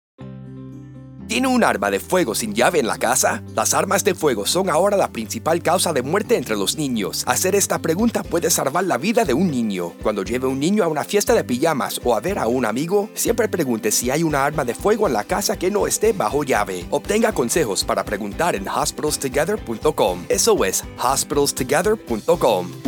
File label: radio spanish